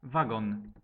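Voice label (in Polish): "wagon", Polish; transport; multimedia glossaries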